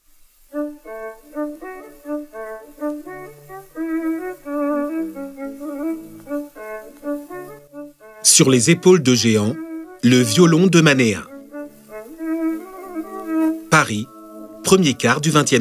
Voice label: audioguia french; delamusica